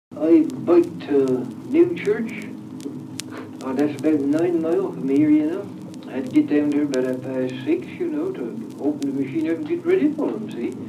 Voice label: kent, accents; appledore